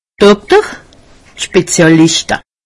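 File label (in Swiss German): "dokter", Haut Rhin; medecine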